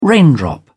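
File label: "raindrop", British English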